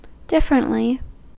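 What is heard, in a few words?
"differently", US English; pronunciations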